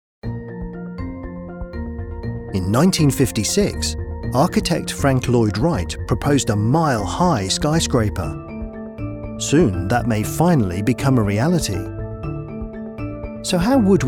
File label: E-Learning; Sky Scrapers